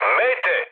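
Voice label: Serbian enemy detected mood med; War Thunder; speech srb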